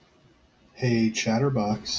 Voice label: hey chatterbox, en us